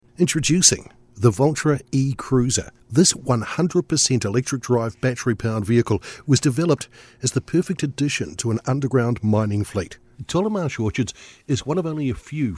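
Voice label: Video Narration, male, English speaking, New Zealand Voice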